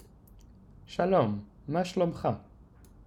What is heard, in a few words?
hebrew hello